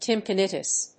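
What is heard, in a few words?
"tympanitis", English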